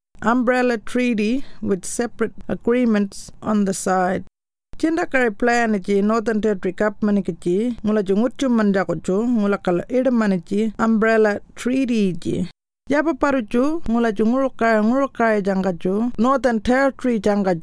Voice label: Warlpiri; Umbrella Treaty